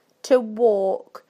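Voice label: "to walk", British English; PictureDictionary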